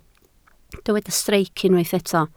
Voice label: "streic", Welsh